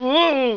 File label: WOAH, human male scared